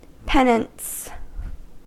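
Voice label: "penance" English, US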